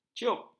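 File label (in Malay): "ciup", Malay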